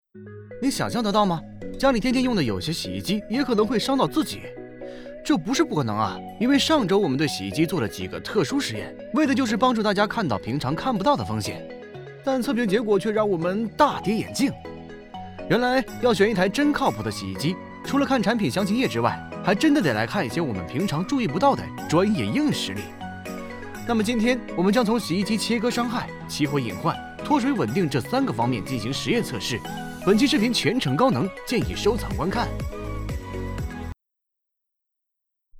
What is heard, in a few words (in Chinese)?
男 UP主测评 洗衣机拆机实验科普视频; 小天鹅; up主解说